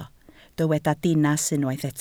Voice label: "dinas", Welsh

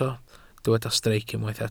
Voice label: "streic", welsh

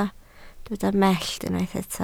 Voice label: "mellt", Welsh

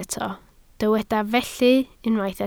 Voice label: "felly", Welsh

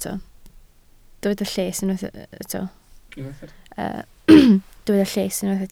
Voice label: "lles", Welsh

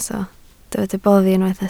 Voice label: "boddi", Welsh

